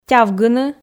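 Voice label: "тявгыны", Komi, female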